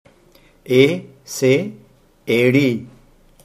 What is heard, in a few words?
"eri", Hindi